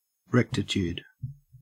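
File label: "rectitude", Australian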